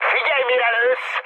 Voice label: player friendly fire, mood high, speech hun

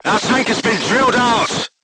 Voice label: voice message tank hit, vietnamese; crew tanks

